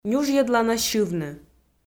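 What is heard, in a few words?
"нюжйӧдлана сьывны", Komi, female; kpv audio dict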